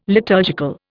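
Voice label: "liturgical", Indian English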